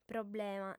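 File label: "problema", Sardinian, female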